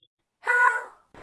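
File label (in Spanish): Voice Acting, Spanish, female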